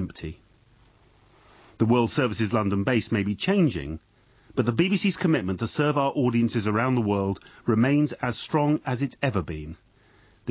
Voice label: last BBC Bush House broadcast